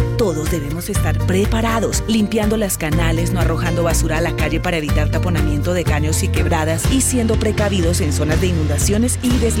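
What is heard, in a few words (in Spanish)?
Cuña temporada Lluvia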